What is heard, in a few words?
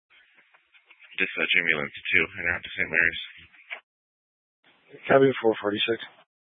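Fire Dispatch, Scanner audio recording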